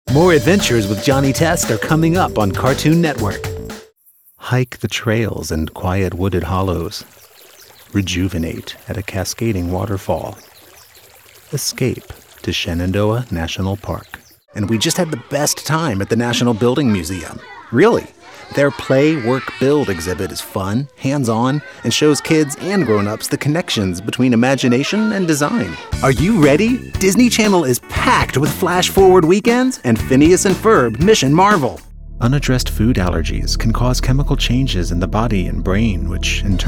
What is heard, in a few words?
Commercial VO; Male